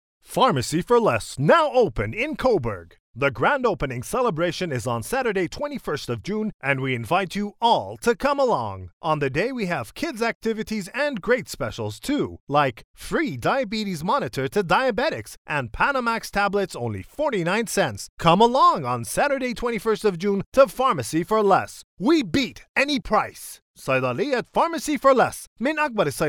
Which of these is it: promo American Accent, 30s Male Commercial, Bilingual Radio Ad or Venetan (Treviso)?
Bilingual Radio Ad